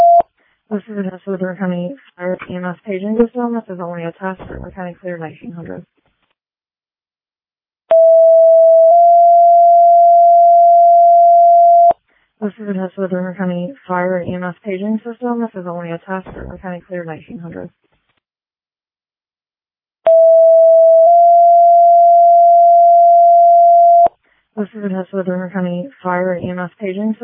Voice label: All Call, dispatch

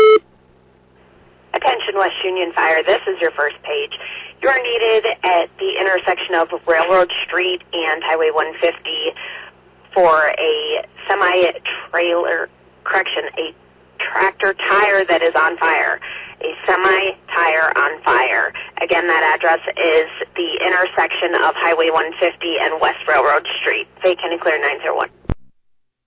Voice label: West Union Fire, dispatch

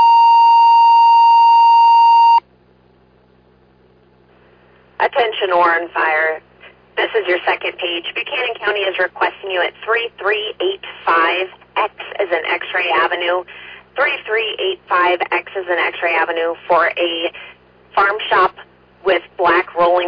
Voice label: Oran Fire, dispatch

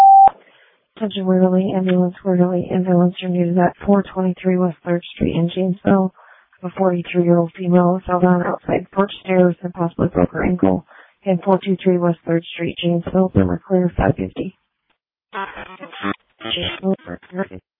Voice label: Waverly Ambulance, dispatch

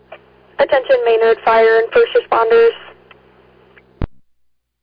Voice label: Fire, dispatch; Maynard

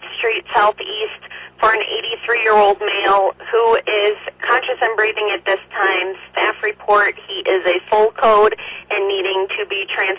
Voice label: Oelwein Ambulance, dispatch

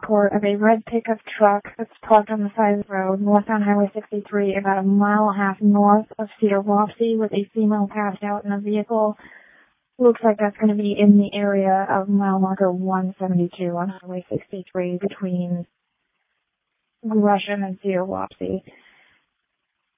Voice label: Ambulance, dispatch; Denver

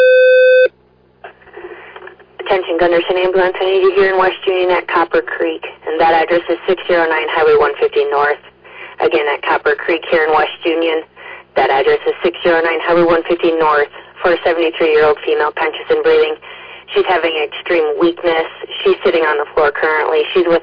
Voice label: Ambulance, dispatch

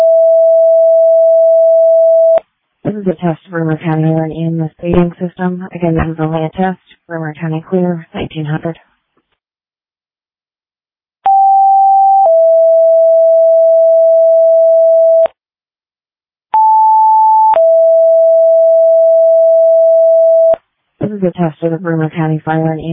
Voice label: Fire, dispatch; Janesville

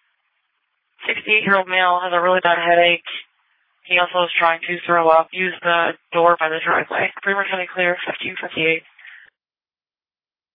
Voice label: Ambulance, dispatch

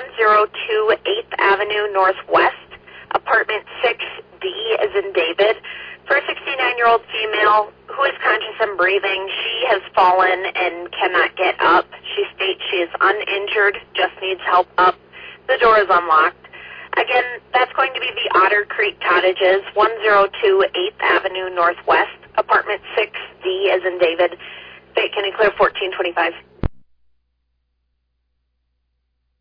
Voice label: Oelwein Ambulance, dispatch

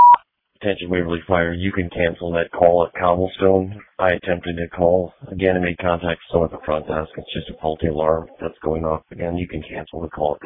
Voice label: Waverly Fire, dispatch

